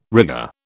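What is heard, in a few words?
"rigour", British English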